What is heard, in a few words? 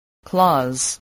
"clause", voice